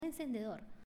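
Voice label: "Encendedor", Spanish, female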